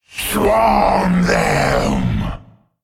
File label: Male Qiraji Attack